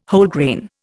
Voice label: "Wholegrain", Indian